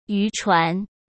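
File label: "渔船", Mandarin Chinese; chinese flashcards; hsk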